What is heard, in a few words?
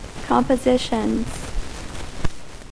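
"compositions", US English; pronunciations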